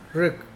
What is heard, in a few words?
gather, Mokilese